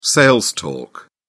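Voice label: "sales talk", British English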